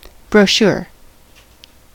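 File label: "brochure", American English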